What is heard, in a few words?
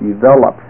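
"developed", English; ABE Responsive Text Demo; CD-ROM Chapter 2.2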